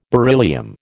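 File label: "beryllium", American English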